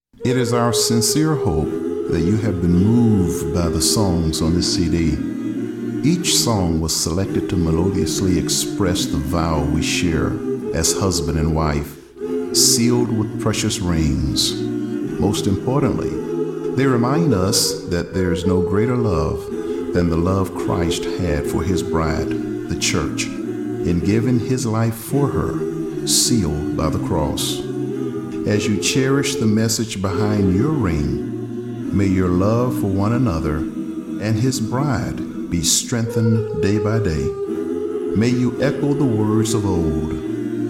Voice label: Closing Narration